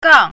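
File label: "gang4", Chinese pinyin, fourth tone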